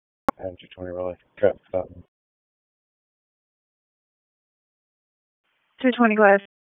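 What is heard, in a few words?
Police Northeast District Disp, radio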